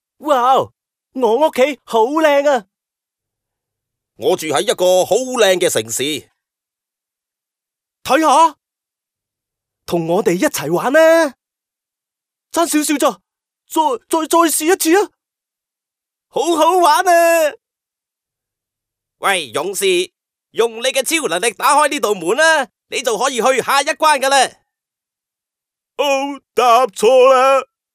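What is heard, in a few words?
Cantonese male children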